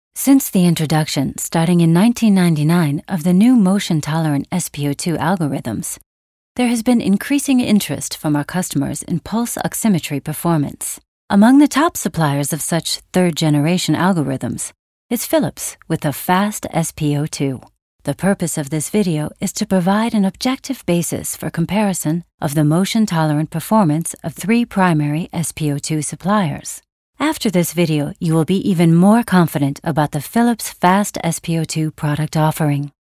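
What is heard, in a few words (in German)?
US English, Kommentar Neutral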